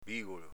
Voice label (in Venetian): "bigolo", Veneto